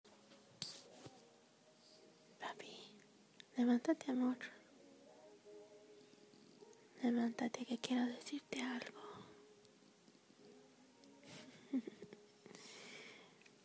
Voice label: Pillow Talk (Spanish)